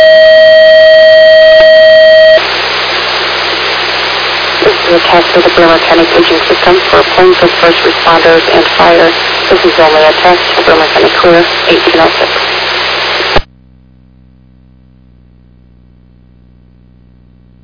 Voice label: Fire, dispatch